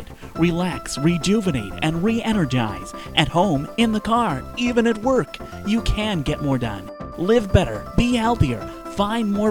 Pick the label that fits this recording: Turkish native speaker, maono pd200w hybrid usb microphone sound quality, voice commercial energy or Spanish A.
voice commercial energy